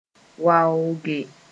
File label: "waooge", Mooré; prevail